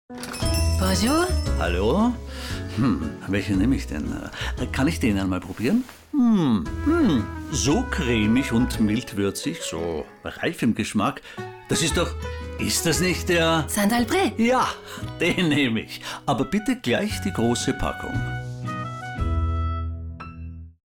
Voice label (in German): Mann